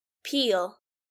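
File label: "peel", English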